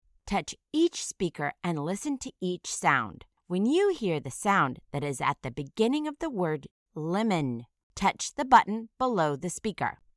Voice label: "lemon", initial phoneme isolation

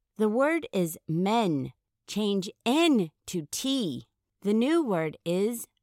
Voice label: men manipulating ending sounds

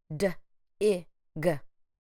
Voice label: d ĭ g, phonemic segmentation

